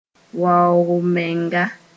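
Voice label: "waoog-m-menga", Mooré; arrogance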